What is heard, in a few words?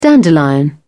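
"dandelion", British English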